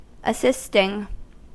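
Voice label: "assisting" English, US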